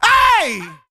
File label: "ay", voice